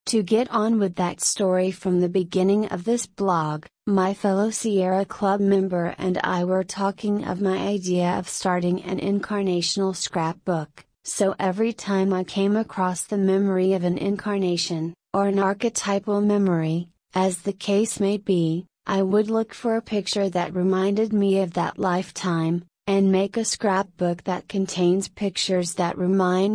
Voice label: substitute voice rate slow pitch medium